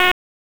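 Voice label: Police Northeast District Disp, radio; Raleigh; wake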